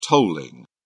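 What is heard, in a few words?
"tolling", British English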